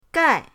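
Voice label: "gai", Mandarin pinyin, fourth tone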